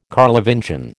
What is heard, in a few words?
"Carlovingian", American